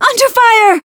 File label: Voice damaged